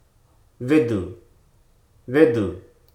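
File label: "identical", spoken word; hruso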